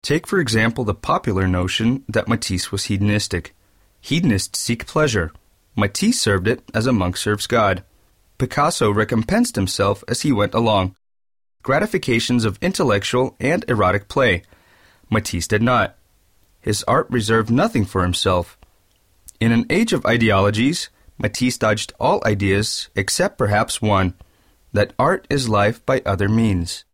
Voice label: Engl text